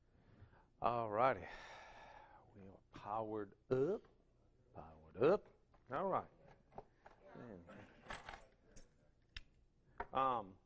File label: English